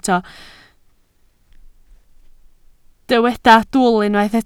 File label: "dwl", Welsh